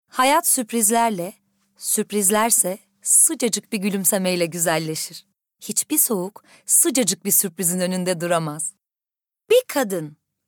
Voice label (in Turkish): REKLAM, tr